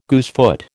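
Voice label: "goosefoot", American English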